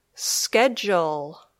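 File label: "schedule", English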